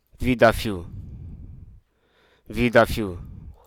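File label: Hruso Aka; cultivable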